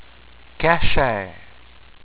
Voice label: "Kascher", french

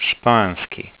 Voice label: "sxpanjolski", Croatian; Spanish

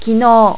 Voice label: "kinou", japanese

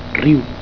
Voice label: "riu", catalan

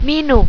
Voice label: cheers, malaysian

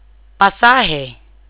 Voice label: "ticket", Tagalog; word of day

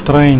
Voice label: "trein", Afrikaans

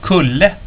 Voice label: "kulle", swedish

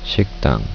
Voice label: restaurant, korean

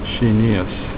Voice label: "chinese", Afrikaans

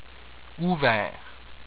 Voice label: "ouvert", french

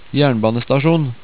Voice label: "jernbane", Norwegian

